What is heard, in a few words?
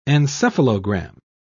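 "encephalogram", English, British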